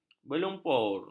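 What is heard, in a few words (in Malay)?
"berlumpur", Malay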